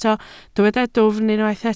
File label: "dwfn", Welsh